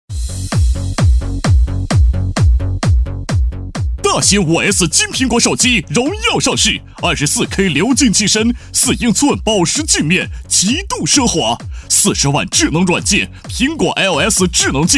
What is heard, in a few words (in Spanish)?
Locutor varón chino